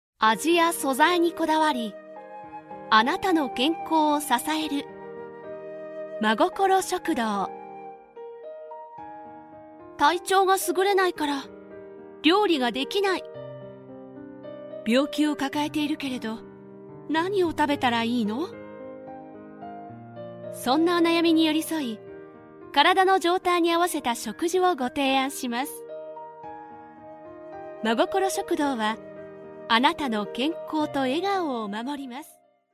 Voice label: female